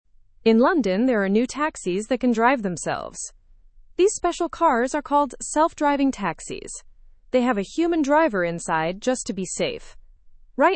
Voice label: learn english through news beginner fast; ride in uber s new self driving taxis